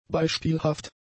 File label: "beispielhaft", German; adjectives